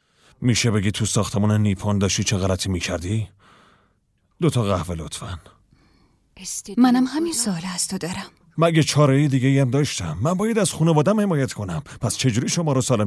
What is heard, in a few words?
dub